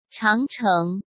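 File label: "长城", Mandarin Chinese; hsk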